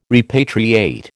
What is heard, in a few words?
"repatriate", American